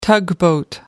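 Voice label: "tugboat", British English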